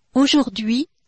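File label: "aujourd'hui", French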